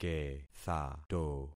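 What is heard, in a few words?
"kethato", concatenated syllables; Affixing experiment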